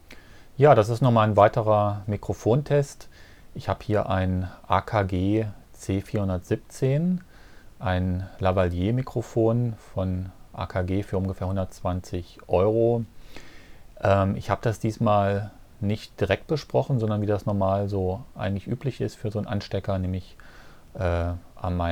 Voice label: UR22 AKG C417; AKG C417 angesteckt; Screencasting; Screencasting für Einsteiger und Anfänger